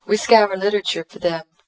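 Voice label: female dereverberated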